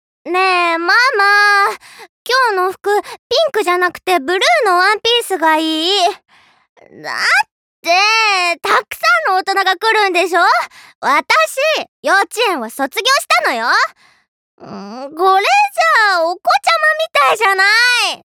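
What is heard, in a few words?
voice sample, precocious girl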